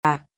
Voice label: Phoneme Female; Commonscript; Lynguistykon